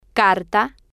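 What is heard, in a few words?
"carta", Spanish, female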